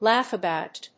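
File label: "laugh about", English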